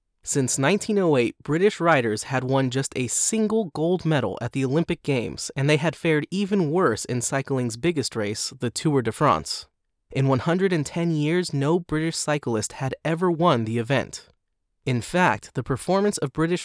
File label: Adult non fiction